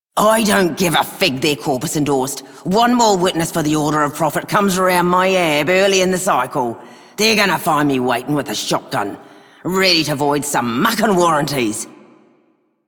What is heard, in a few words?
female scum; Female Two; en; Dialog; Warframe; Solaris Venus